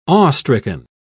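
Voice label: "awestricken", British English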